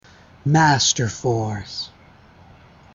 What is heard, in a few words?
Narrator Line; Masterforce